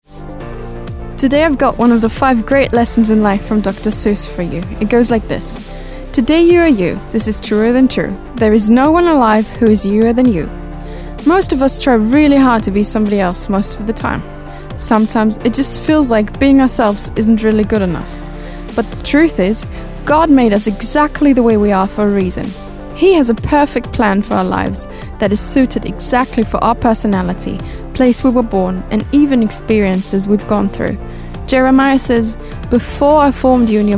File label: british english